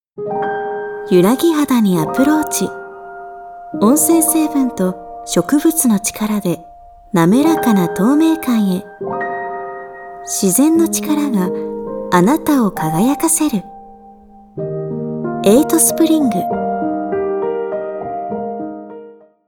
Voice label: japanese commercial, warm